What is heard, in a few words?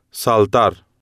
"saltar", spanish